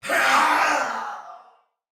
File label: Death Soldier Male, Battle; Dialog; Barks; Sinecure